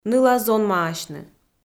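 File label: "ныла-зонмаасьны", Komi, female; audio dict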